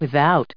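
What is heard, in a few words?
"without", US English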